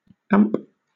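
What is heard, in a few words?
"amp", British English; pronunciations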